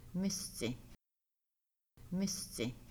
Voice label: owl, Kaska

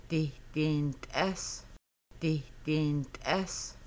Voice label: You will fry chicken, Kaska; Making Bannock

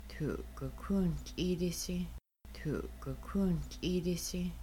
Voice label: You might pour water, Kaska; Making Bannock

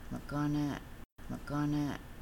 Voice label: sleeve also arm, Kaska; Sewing Regalia

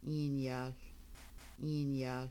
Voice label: you are g, Kaska; you are going

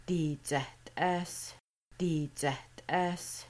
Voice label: Making Bannock We 2 are frying chicken, Kaska

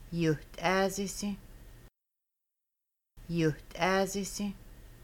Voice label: He might fry it, Kaska; Making Bannock

